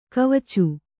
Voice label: "ಗೆವಚು", Kannada

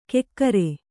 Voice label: "ಕೆಕ್ಕರೆ", kannada

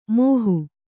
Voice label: "ಮೋಹು", kannada

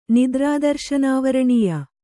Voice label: "ನಿದ್ರಾದರ್ಶನಾವರಣೀಯ", kannada

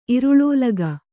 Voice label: "ಇರುಳೋಲಗ", kannada

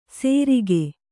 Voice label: "ಸೇರಿಗೆ", Kannada

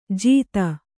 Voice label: "ಜೀತ", Kannada

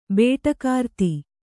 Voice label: "ಬೇಟಕಾರ್ತಿ", Kannada

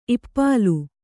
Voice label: "ಇಪ್ಪಾಲು", kannada

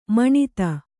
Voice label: "ಮಾನಿತ", kannada

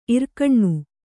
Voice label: "ಇರ್ಕಣ್ಣು", Kannada

